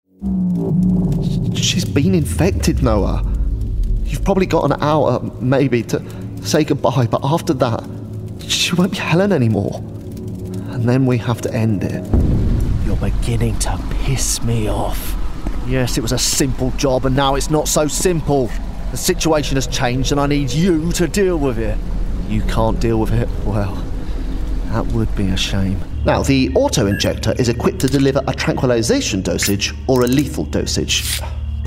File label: Gaming Character